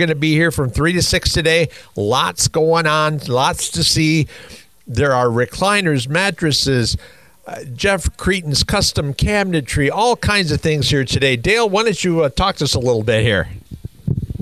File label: live radio drop; WKQS; E and E Furniture of Gwinn; Great Lakes Radio